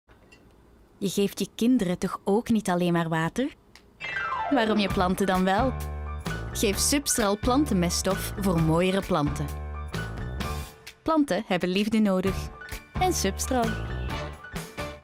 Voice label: female dutch flemish and english flemish commercial; substral